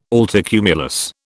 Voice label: "altocumulus", British